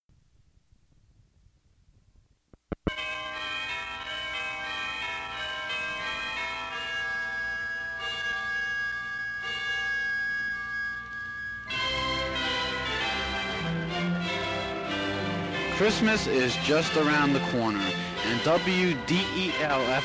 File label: radio commercial; wdel